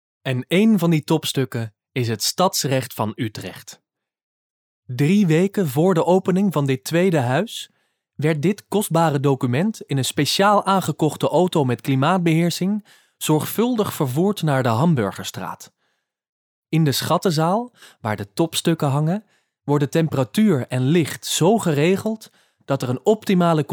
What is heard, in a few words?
male dutch voice over talent narrative